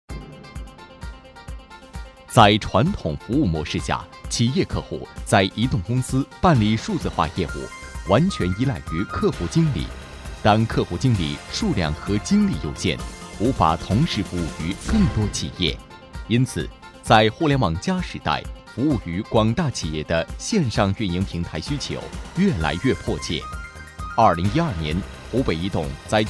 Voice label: 男 专题风格; 华为兴业云

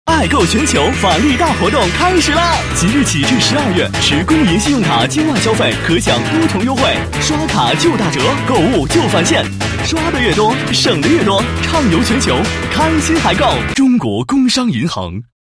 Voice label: 男 广告 银行 年轻时尚, 国语男声配音; 工商银行

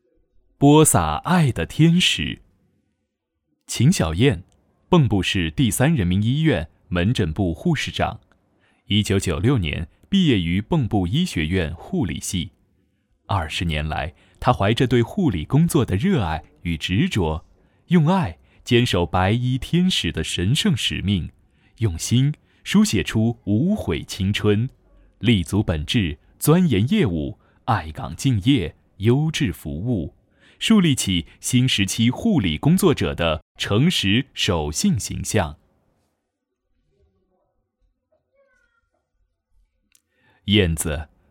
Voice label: 男-温暖人物

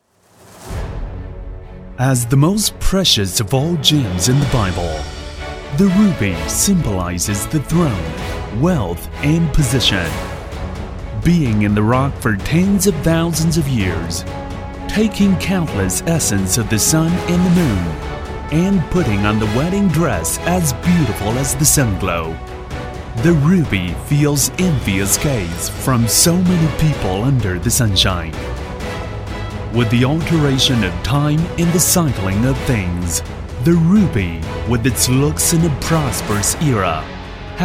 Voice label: 英男, 英文, 宣传片

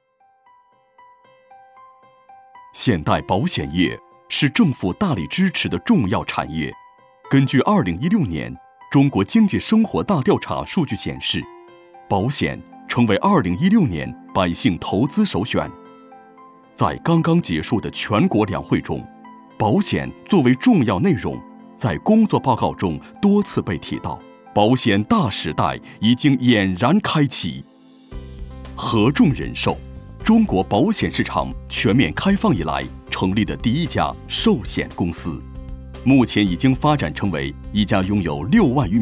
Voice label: 男 专题 人寿, 国语男声配音; 合众人寿

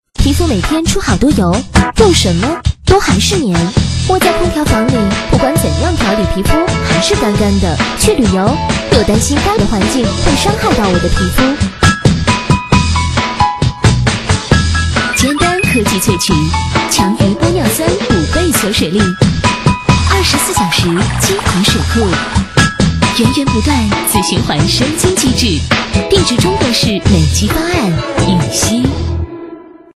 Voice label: 女 广告 洗化类 年轻时尚, 国语女声配音; 优雅配音; 羽西雪耳沁润系列

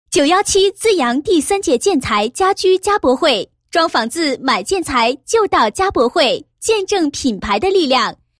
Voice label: 女-广告; 乐居网第十届建材家具家博会

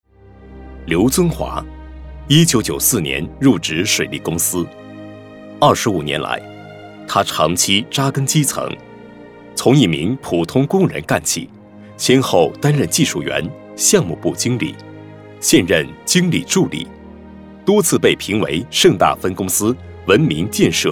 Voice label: 男, 人物事迹专题, 讲述, 大气

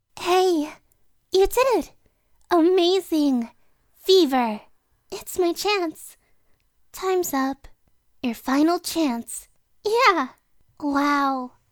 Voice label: 外籍女 萝莉音

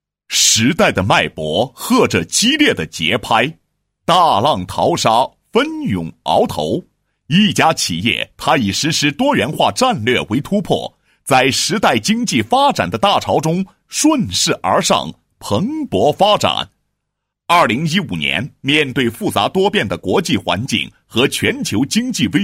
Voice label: 男 工作总结 运输集团有限公司年度总结; 某市运输集团有限公司年度总结

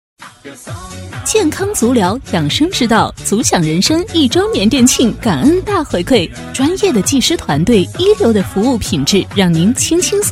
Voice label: 女 广告 健康足疗, 国语女声配音

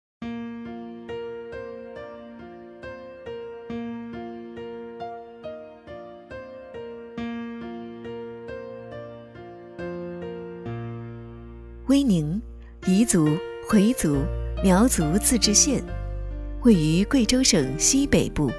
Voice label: 女 专题 彝族地区介绍; 宣传片; 普通话

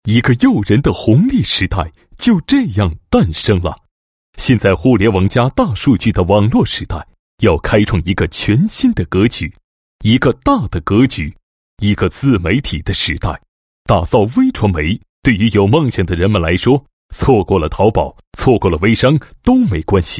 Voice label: 男 宣传广告 互联网平台宣传; 微众联盟 微传播

